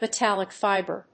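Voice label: "metallic fiber", English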